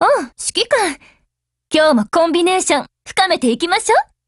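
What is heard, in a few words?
Japanese, Voice; GF Alarm; SSG69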